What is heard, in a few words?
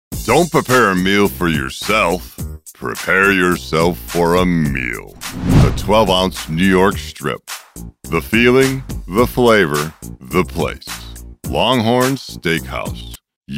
COMMERCIAL